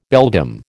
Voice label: "beldam", American